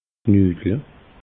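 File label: "nudle", Alsatian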